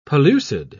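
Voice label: "pellucid", English, British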